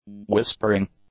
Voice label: "whispering", English

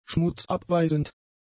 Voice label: "schmutzabweisend", German; adjectives

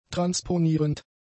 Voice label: "transponierend", German; adjectives

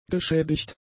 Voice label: "geschädigt", German; adjectives

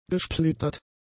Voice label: "gesplittert", German; adjectives

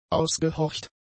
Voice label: "ausgehorcht", German; adjectives

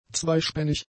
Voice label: "zweispännig", German; adjectives

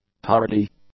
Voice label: "tardy", English; adjectives

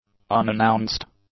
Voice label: "unannounced", English; adjectives

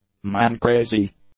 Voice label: "man-crazy", English; adjectives

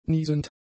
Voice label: "niesend", German; adjectives

